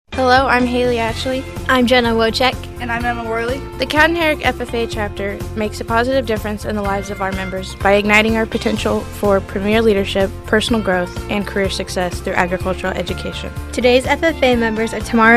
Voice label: female; Cowden-Herrick FFA